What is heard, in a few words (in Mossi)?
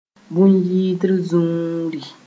"bõn-yɩgdr zũuuri", moore